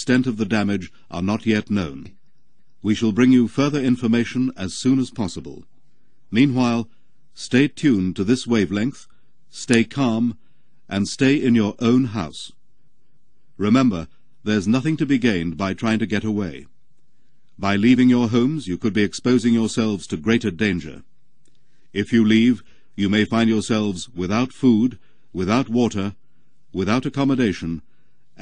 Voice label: Wartime Broadcasting Service Nuclear Attack Warning; civil defence